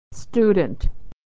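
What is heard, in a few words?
"student", American English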